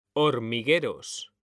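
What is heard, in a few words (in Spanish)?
"hormigueros", Spanish, male; mekanta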